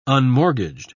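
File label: "unmortgaged", British English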